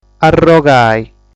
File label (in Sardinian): "Arrogai", sardu